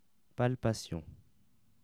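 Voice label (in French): "palpation", French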